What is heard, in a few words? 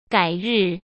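"改日", Mandarin Chinese; hsk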